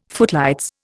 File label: "footlights", Indian